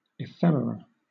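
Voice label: "ephemera", British English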